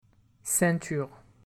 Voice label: "ceinture", French